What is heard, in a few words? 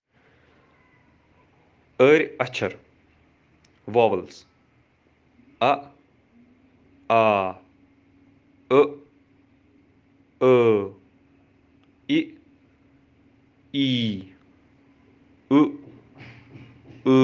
ari achar vowels, kashmiri